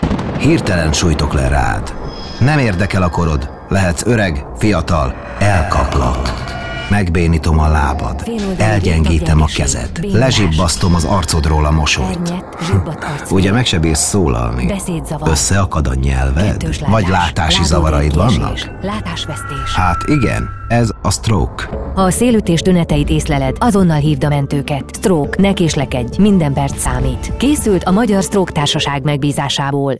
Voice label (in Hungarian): radiospot kampany; stroke